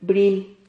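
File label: "bril", Spanish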